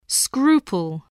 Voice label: "scruple", english spelling